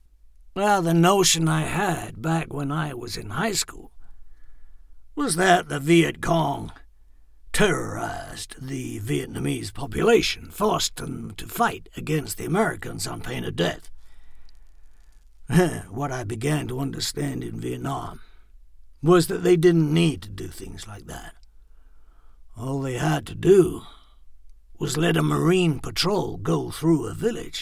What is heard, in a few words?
US